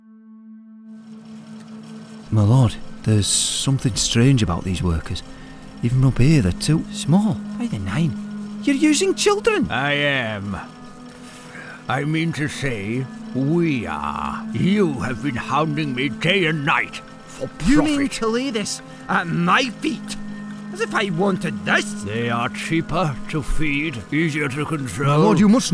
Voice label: Gaming Character

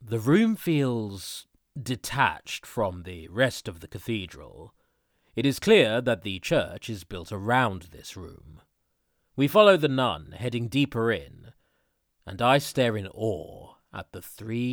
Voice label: Audiobook English Young Adult Male; Volas Regali

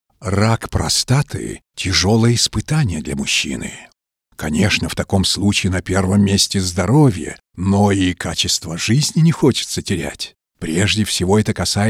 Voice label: Health centre narration

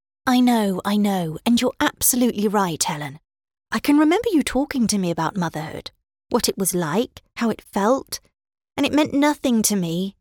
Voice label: RP accent

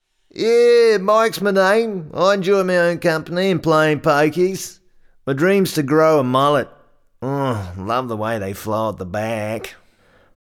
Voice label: Kiwi Accent

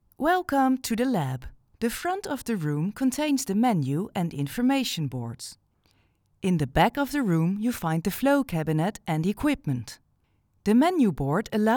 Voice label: VR simulation tutorial English Mid Europen Accent

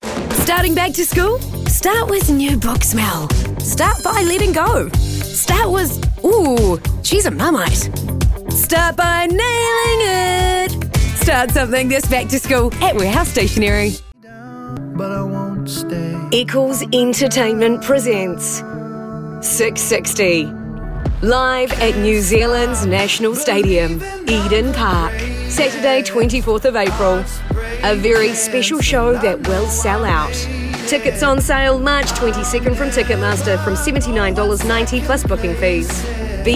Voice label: Commercial

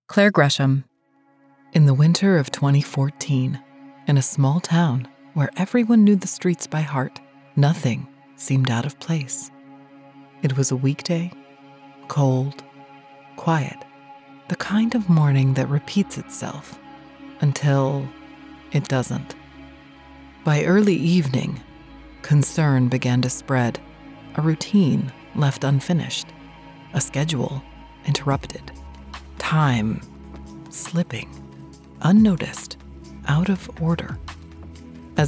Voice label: Crime Doc Intro

